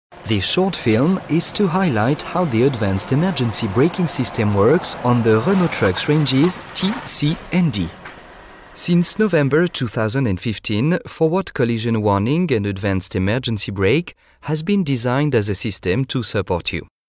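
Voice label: French male English with French accent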